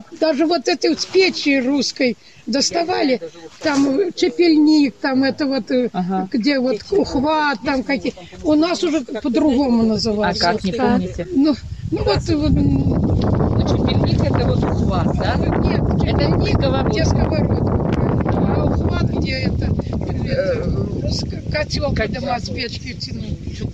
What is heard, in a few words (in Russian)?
среднеобский говор; чапельник